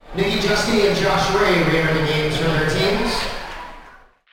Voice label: Basketball Announcer Speaking; Basketball sound effects; Crowd and stadium ambience, announcers, cheering